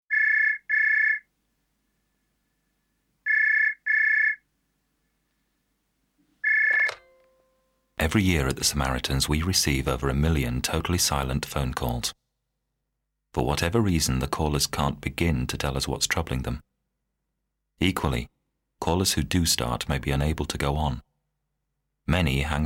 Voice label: voiceover demo